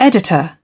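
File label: "editor", British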